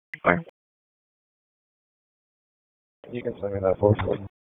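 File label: Police Northeast District Dispatch, p25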